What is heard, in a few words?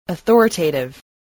"authoritative", English